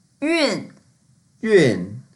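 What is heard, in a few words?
"yun4", Mandarin Chinese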